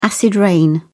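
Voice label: "acid rain", British English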